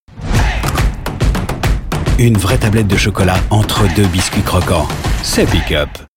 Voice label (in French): pub web; Pick Up